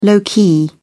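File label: "low-key", British English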